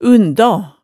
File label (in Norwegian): "undaa", hemsedalsmaalet tale